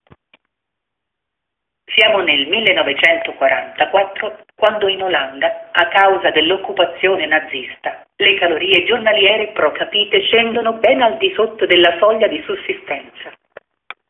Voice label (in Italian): frase femminile